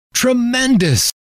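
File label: "tremendous", male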